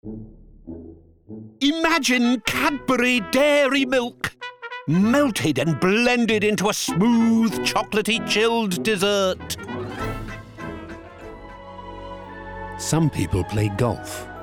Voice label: commercial reel